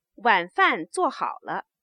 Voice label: stative, Chinese